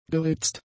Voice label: "geritzt", German; adjectives